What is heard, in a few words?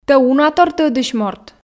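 "тэ унатор тӧдысь морт", Komi, female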